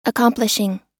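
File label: "accomplishing", US English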